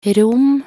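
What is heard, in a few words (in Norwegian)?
"rom", Norwegian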